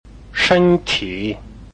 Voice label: "shen1 ti3", Chinese